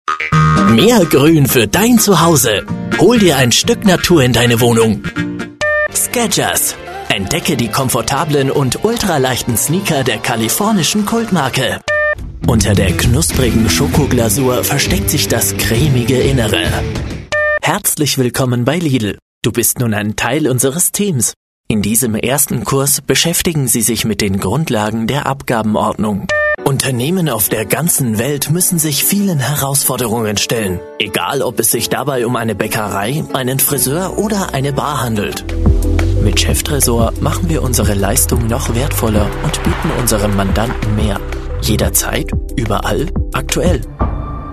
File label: german voice over male commercial